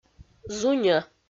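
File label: "зуньӧ", Komi, female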